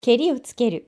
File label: "keriotsukeru", Japanese